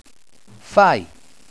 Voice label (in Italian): "Fai", Italian